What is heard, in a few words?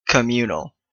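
"communal", Canadian English; pronunciations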